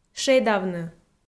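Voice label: "шедавны", Komi, female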